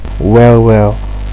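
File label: "well well", Nigerian Pidgin, male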